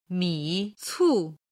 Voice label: "mi cu", Chinese

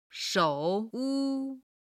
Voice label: "shou3 wu1", Chinese

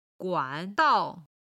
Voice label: "guan3dao4", chinese

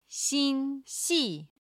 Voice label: "xin1xi4", chinese

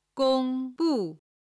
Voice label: "gong1bu4", chinese

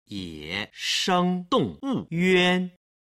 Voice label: "ye sheng", Chinese

